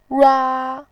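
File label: "rua", Mandarin Chinese, first tone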